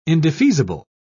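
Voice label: "indefeasible", British English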